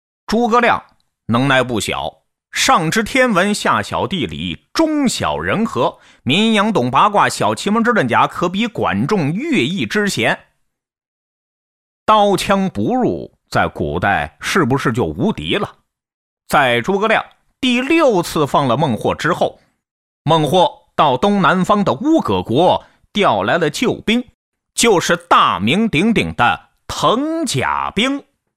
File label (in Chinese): 京腔灌口